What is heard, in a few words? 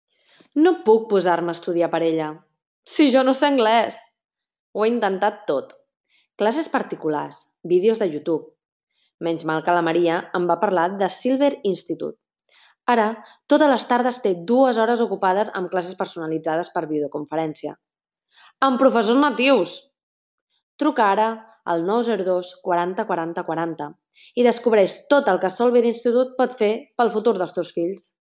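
Catalan, Commercial; GoLocalise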